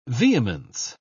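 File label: "vehemence", British English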